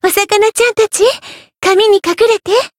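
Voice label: Voice variable chant, Japanese; pizzica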